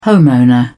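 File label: "homeowner", British English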